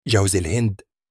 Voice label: Coconut in arabic

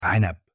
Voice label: grape in arabic

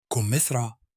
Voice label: pear in arabic

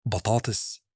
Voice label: potato in arabic

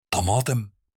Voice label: tomato in arabic

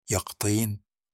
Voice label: pumpikin in arabic

